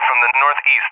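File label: "from the northeast", English, USA; DCS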